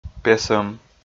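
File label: "песӧм", Komi, male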